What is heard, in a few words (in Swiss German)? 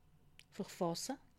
"verfasse", alsace